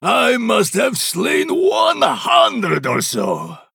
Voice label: Male Draenei Play

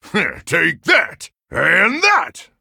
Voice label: Human Emote

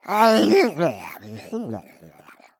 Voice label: Thinking

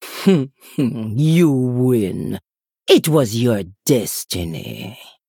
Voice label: Female Troll, Concede

